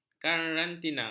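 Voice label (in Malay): "karantina", Malay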